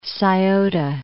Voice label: "Scioto", English